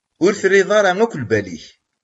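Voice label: "Ur tezmiremt ara ad tkecmemt s wayen.", Kabyle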